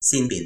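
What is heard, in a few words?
"sinpin", toki pona; linku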